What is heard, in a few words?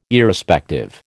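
"Irrespective", American English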